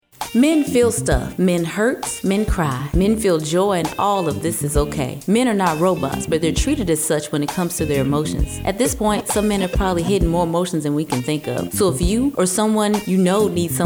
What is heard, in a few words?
Commercial